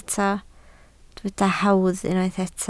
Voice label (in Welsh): "hawdd", Welsh